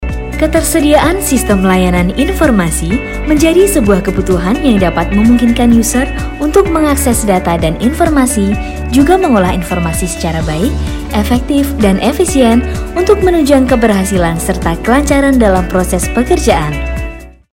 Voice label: INDONESIA FORMAL, voiceover